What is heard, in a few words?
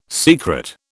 "secret", British